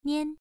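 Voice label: "nian", tone 1, pronunciation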